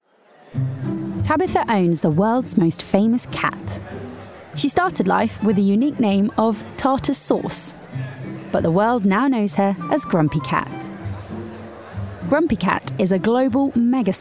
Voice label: DOCUMENTARY Superstar Cats

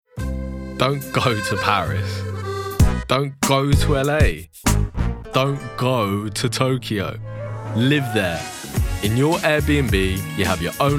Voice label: commercial reel; AIRBNB

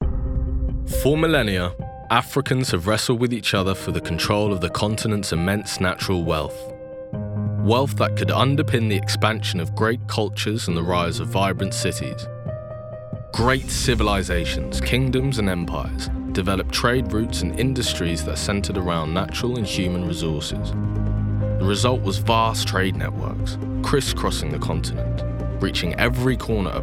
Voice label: narration

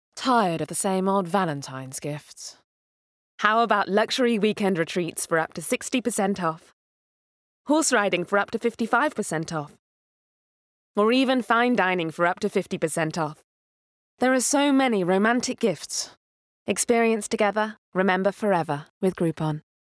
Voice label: UK VO With SFX Bed; GROUPON; Valentines

